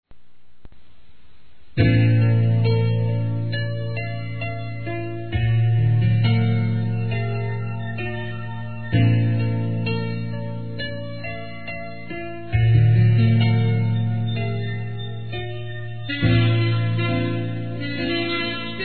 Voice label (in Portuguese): amizade evangélica masculino; Telemensagens